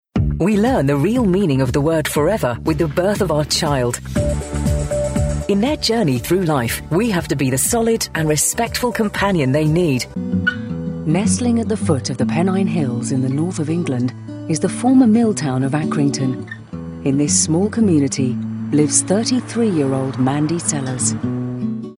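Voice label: British corporate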